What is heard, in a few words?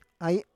"ai", Roon